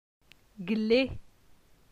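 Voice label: "Gle", French; phonetique; la syllabe e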